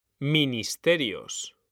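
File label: "ministerios", Spanish, male; mekanta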